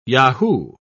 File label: "yahoo", pronounce